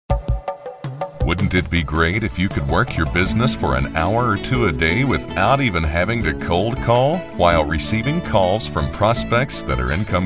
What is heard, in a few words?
On Hold